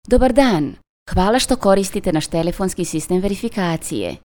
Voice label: Serbian; קריינות